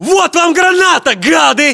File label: inform attack grenade, battlechatter, ru; localized english